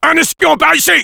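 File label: Sniper cloaked spy, French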